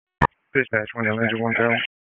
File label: PS TAC, p25; wake